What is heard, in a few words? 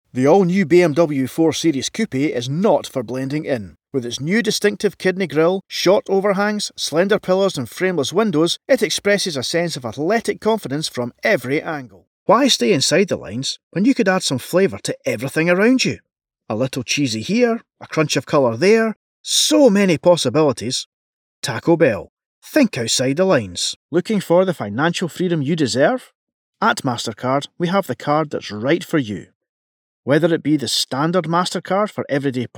voice overs for commercials, IVR showreel